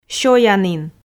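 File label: "сёянін" Komi, female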